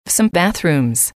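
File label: "some bathrooms", English, female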